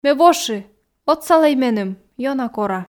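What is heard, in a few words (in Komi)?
ме воши отсалӧй меным ёна кора, Komi, female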